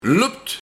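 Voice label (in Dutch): "Lupt", Luik, Loopt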